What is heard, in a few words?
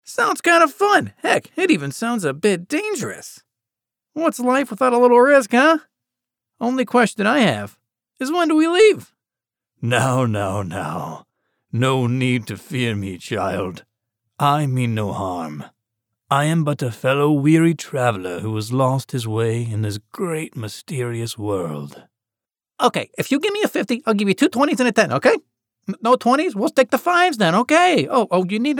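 US English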